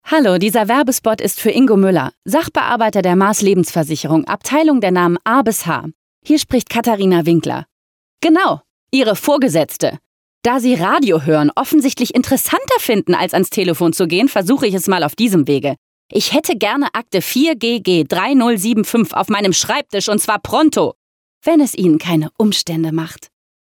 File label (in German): radio